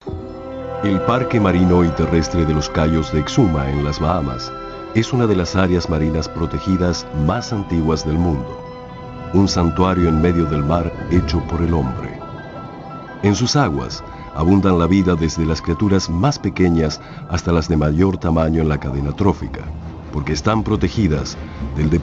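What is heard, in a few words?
Latin American Spanish, Documentary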